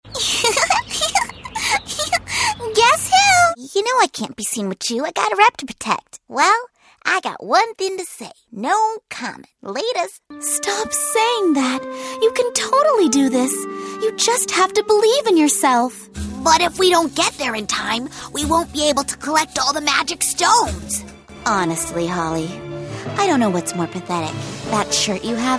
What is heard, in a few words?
animation